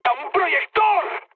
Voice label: searchlite, mood high, speech; War Thunder

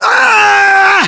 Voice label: melee attack, voiceovers english

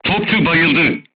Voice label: voice message gunlayer contused, turkish

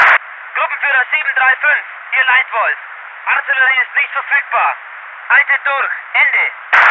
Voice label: voice ger infantry

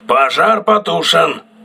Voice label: fire doused, crew ships russian

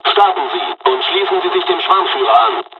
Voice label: takeoff, dialogs german; War Thunder; midway

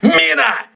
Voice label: voice message mine, crew ships russian

